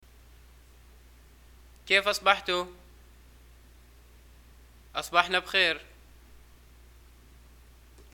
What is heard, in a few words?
greeting morning, arabic